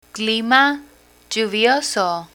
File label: "clima lluvioso", Spanish; learn spanish